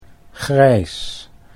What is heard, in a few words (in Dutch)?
"grijs", Dutch